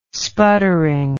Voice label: "sputtering", english